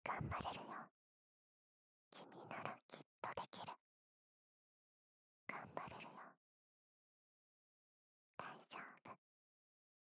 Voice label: 囁き 右耳 頑張れる

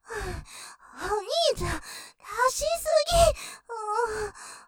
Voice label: 絶頂余韻 涙目でプンプン お兄ちゃん出しすぎ！！; ステレオ

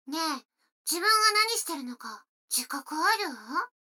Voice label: 女の子台詞, ASMR

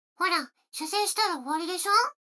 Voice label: 女の子 台詞, ASMR

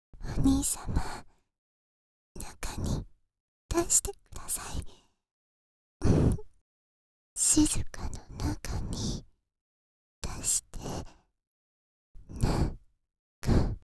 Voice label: 中に出して♪ はにかみ; 静華

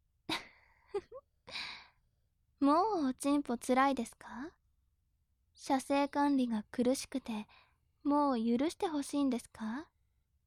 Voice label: 調教中音声

